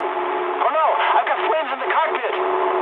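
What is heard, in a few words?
English on fire mood med; speech; War Thunder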